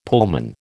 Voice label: "Pullman", American